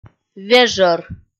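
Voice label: "вежӧр", Komi-Zyrian, female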